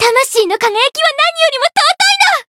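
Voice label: Voice chant, Japanese; ilulu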